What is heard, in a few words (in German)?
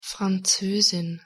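"franzosin", German; pronunciation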